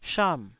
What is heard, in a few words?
"sham4", taiwanese